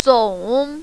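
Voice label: "zong" third tone, mandarin, female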